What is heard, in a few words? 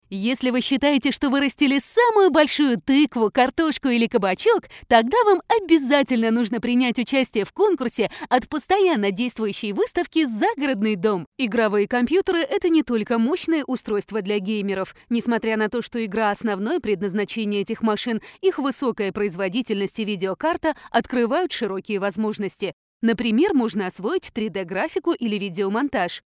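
Russian Russia female upbeat; russian voice over talent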